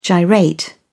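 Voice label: "gyrate", British English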